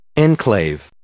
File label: "enclave", English